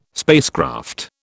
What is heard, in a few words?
"spacecraft", British